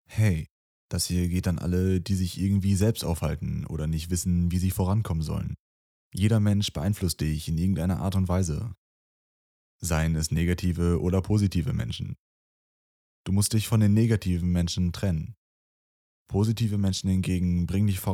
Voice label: German, Male